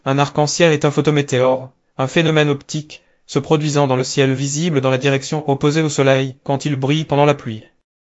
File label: speaker, fr FR; piper voices